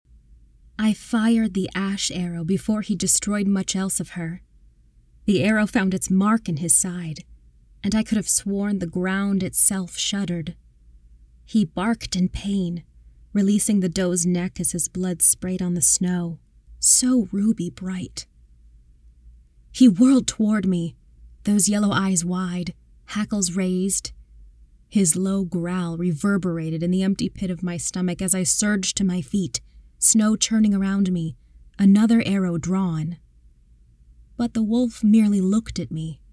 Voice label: audiobook; "A Court of Thorns and Roses" (audiobook demo) - young woman, tense moment, narrative in a fantasy setting